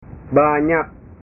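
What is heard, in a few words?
"banyak", Indonesian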